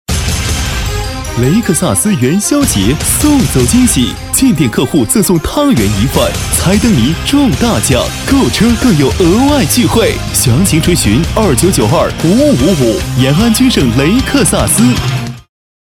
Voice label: 男 广告

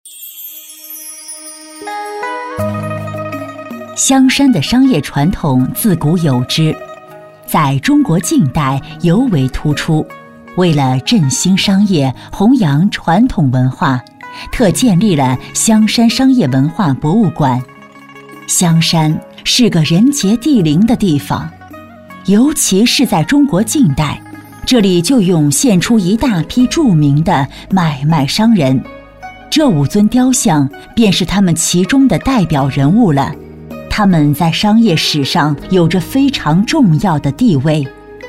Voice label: 女3-专题-博物馆讲解, 女; 香山商业文化博物馆

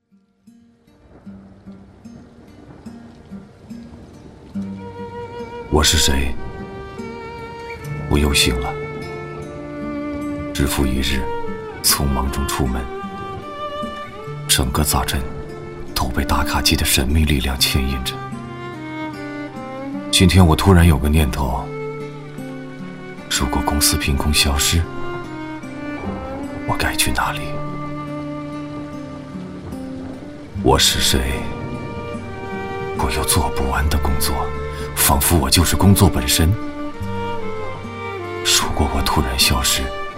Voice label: 男 广告 品牌悲伤自述

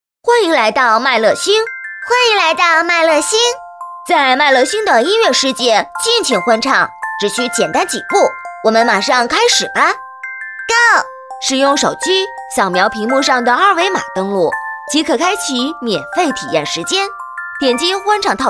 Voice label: 模仿 男童女童; 唛乐星

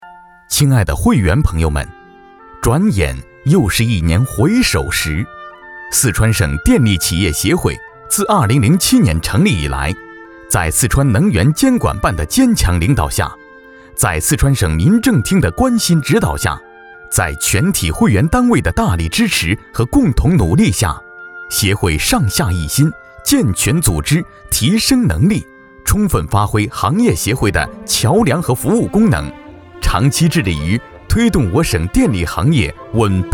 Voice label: 男, 颁奖

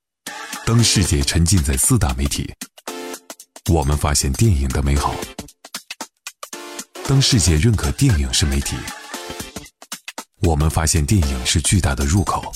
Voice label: 男 专题 性冷淡风

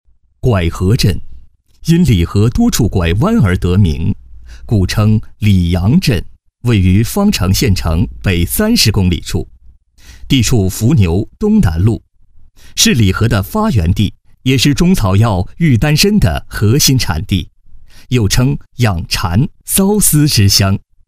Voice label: 男 专题 自然叙述; 拐河

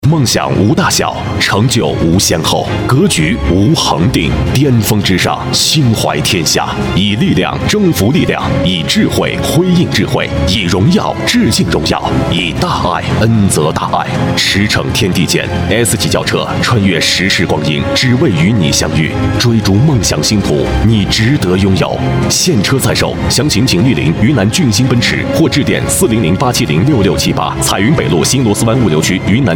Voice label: 男 广告; 奔驰汽车A

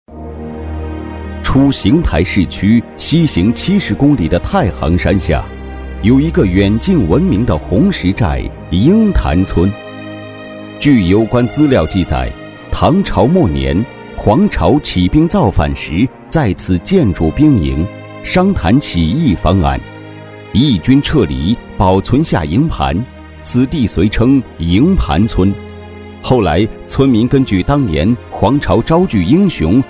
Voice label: 男 专题; 营盘村专题

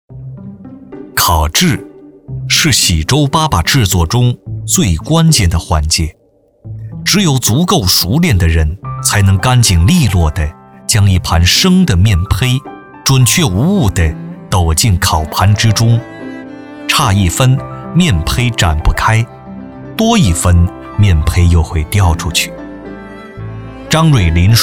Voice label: 男 模仿

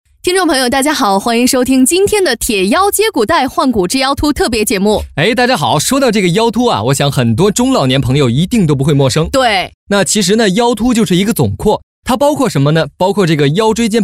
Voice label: 男 广告 电台卖药 年轻 自然; 样音; 电台卖药样音

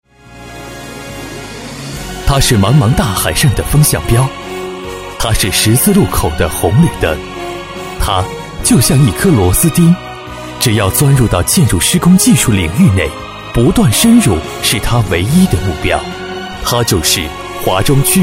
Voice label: 男 颁奖 颁奖词（感动大气）

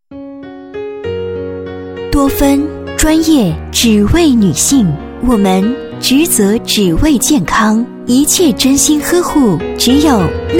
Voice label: 女7-广告-专业只为女性(温柔沉稳); 多芬

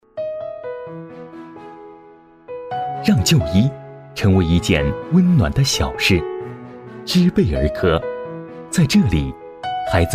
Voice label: 男 专题 温馨; 儿科; 知贝儿科

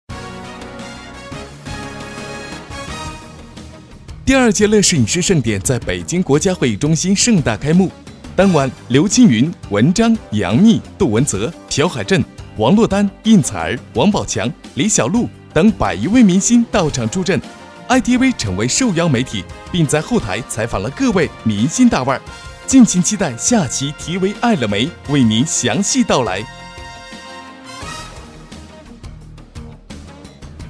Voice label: 男96-新闻-娱乐新闻（活泼、欢快）

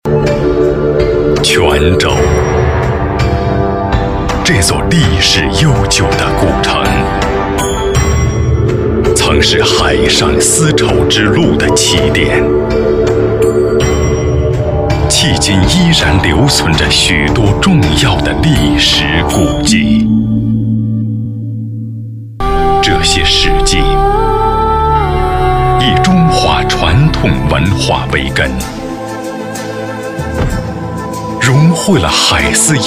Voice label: 男 专题

